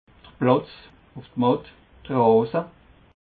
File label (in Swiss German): "platz", alsace